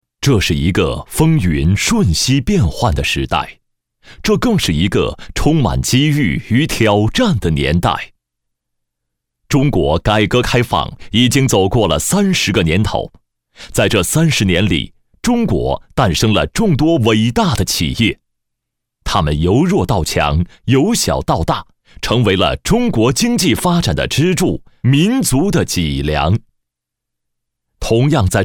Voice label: 男声配音; 靓仔专题; 奥康春季产品发布

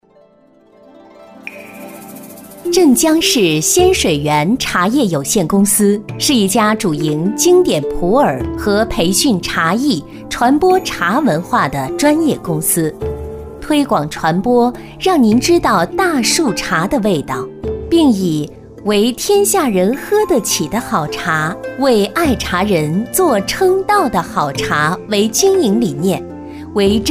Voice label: 女 专题 典雅韵味

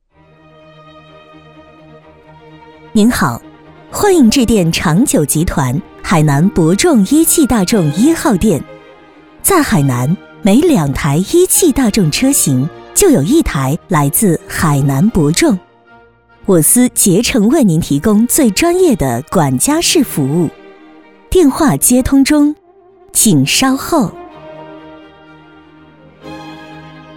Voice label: 彩铃 温柔

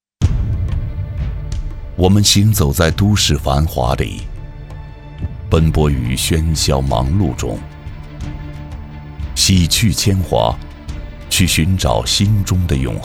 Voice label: 男; 锦绣太原

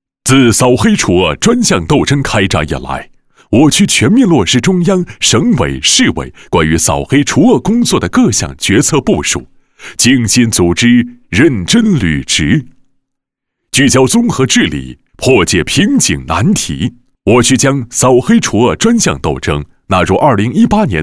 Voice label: 男-专题; 扫黑除恶专项斗争专题

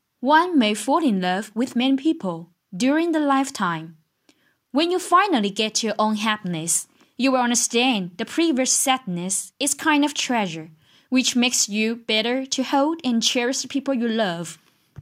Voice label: 英文

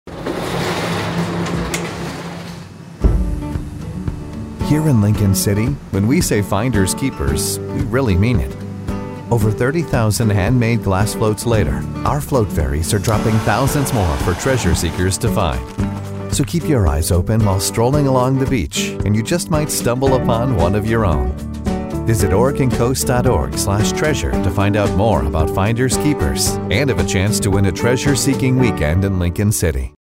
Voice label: 外籍男 商业广告 Commercial; Finders-Keepers

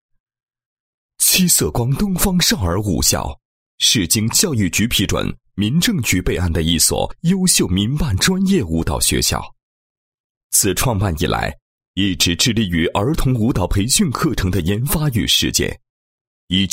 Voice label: 男 学校, 配音; 少儿舞蹈学校; 七色光东方少儿舞蹈学校

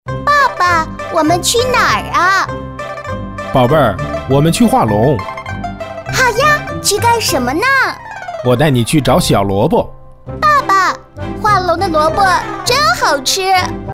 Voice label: 女童, 国语女声配音

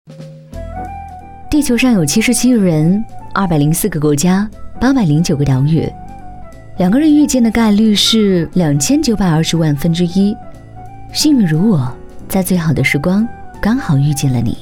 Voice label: vlog配音; 普通话

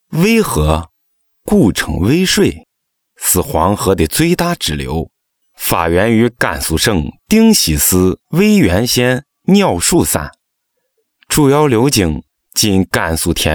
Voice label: 陕西话; 渭河

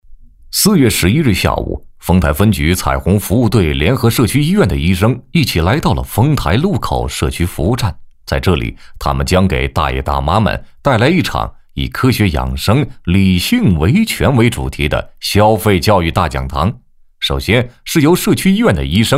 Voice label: 男 栏目 民生栏目 磁性 轻松

